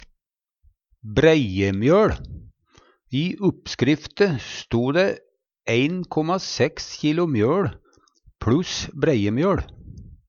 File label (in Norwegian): breiemjoL, Numedalsmål; Substantiv inkjekjønn; Hushald, mat, drikke